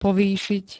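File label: "povýšiť", Slovak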